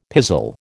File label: "pizzle", American